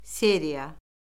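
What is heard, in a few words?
"seriya", Azerbaijani; Orfoqrafiya Lüğəti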